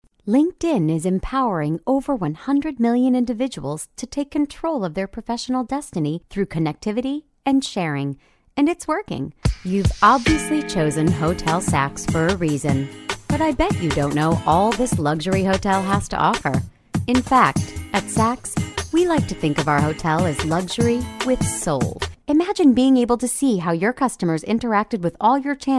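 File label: narration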